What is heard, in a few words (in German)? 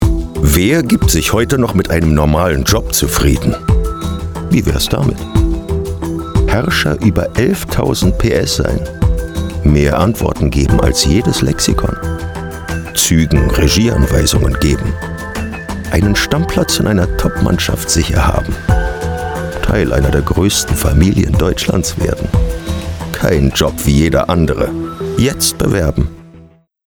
werbung